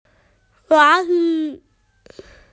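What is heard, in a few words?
"toy", Mandarin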